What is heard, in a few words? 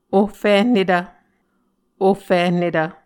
"ohfihnetv", Muscogee